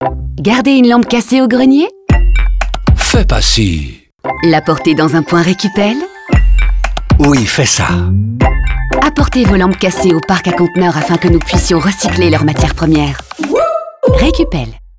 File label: radio fr; sonhouse; recupel; doetanie; armaturen